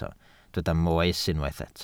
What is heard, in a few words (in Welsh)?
"moes" Welsh